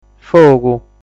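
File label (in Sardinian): "Fogu", Sardinian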